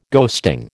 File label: "ghosting", American